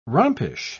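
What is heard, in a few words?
"rompish", English, British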